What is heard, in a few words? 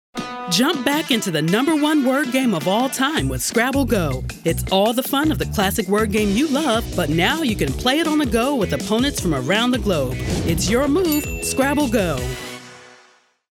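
commercial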